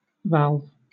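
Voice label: "valve", British English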